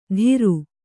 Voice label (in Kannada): "ಧಿರು", kannada